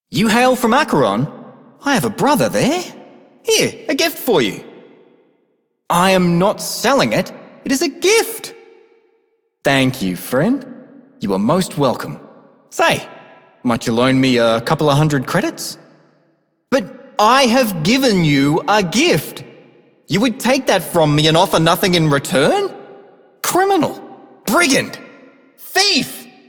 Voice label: male generic; Dialog; Warframe; Solaris Venus